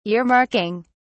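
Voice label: "earmarking", British English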